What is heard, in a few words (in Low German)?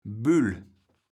"Bül", kaevels platt